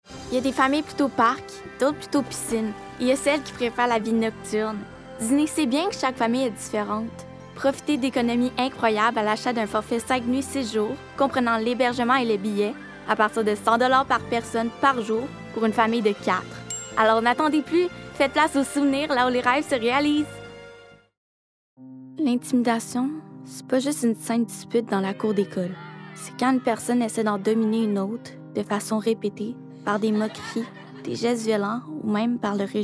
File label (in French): publicite complet